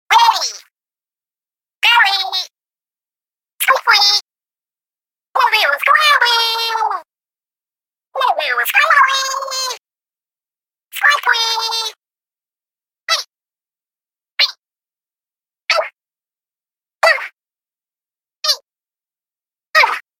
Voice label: Female inkling sounds; Splatoon